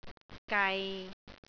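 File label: "gai6", Cantonese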